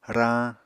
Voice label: "rañ", Apatani